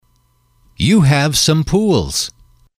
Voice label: you have, English

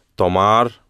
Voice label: "tomar", Spanish

